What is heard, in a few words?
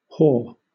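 "haw", British English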